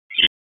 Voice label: Police Northwest District Tac 1